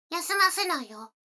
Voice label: 女の子 台詞

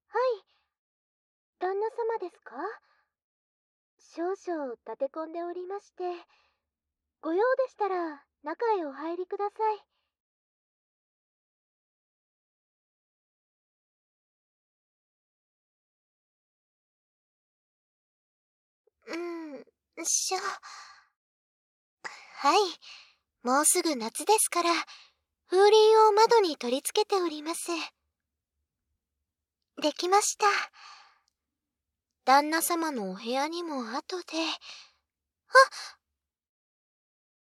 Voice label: 恋人耳かき （声のみ）; 撫猫さんと交際

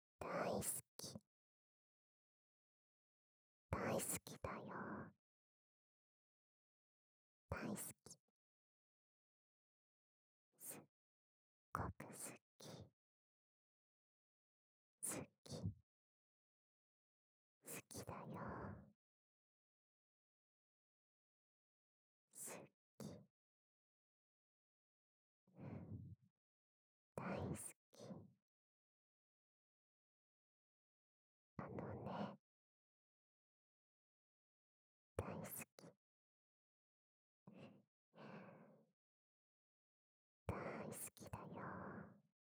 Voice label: 囁き 右耳 大好きだよ

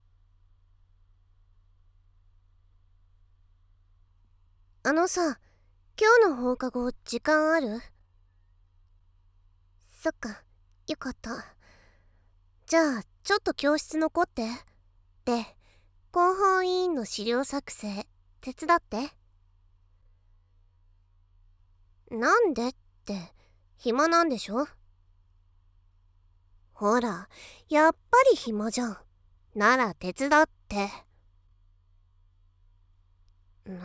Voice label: 甘く誘う話

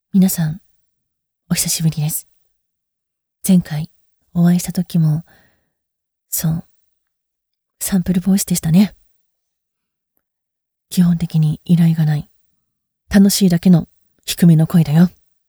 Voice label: 低音ゆるやかトーク

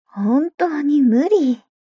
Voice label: mob女子台詞

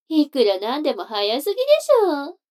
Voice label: 女の子台詞, ASMR

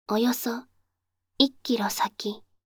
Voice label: およそ1キロ先, カーナビ 案内音声; カーナビ(で使えるかもしれない)案内音声

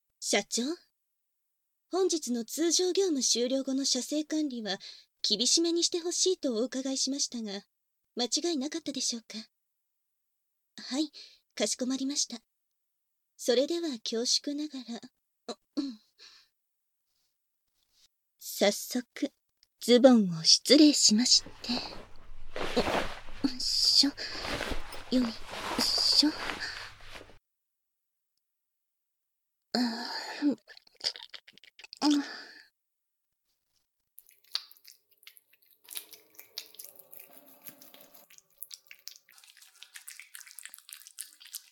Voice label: オープニング（ハード）（バイノ―ラルマイク収録 効果音あり）; 新効果音; バイノーラル編集 効果音あり

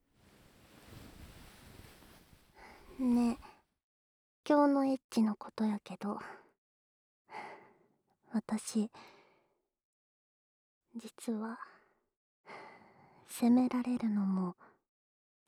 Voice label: ピロートークと添い寝, 富山弁, ASMR

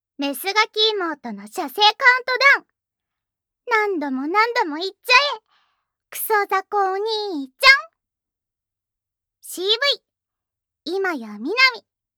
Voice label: タイトルコール, ASMR

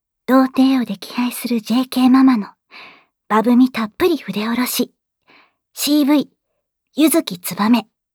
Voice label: タイトルコール, ASMR

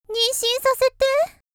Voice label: 妊娠させて, 少女; 元気な少女

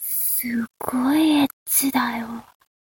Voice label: mob 女子 台詞

